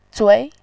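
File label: "zui" Mandarin Chinese, second tone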